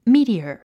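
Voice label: "meteor", English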